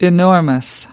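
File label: "enormous", English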